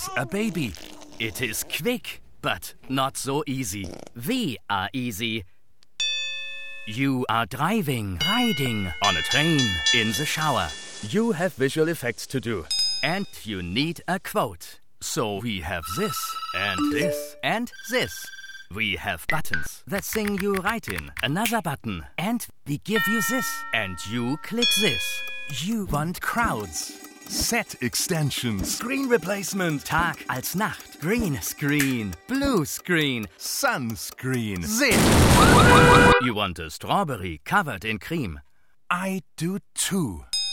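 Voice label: crazy english voice over with heavy german accent; LOFT VOICES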